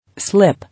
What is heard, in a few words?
"Slip", English